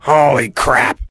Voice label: shock; borealis; hl2

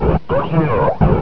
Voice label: announce, combine soldier, far; hl2

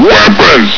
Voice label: "weapons!", combine soldier; hl2

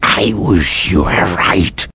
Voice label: answer, vort speech; hl2

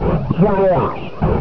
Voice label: man down, combine soldier, far; hl2

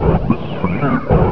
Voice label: throw grenade, combine soldier, far; hl2

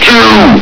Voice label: "two!", hgrunt; hl2

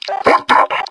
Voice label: surprise; metropolice; hl2

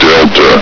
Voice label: "delta", combine soldier; hl2

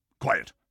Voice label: "quiet", combine soldier; hl2

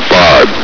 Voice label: "fight", combine soldier; hl2

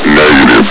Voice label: "negative", combine soldier; hl2

